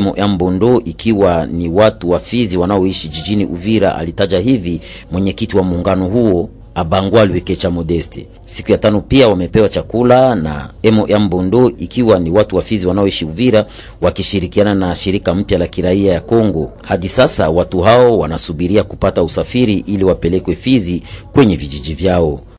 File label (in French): REFOULE DU BURUNDI swahili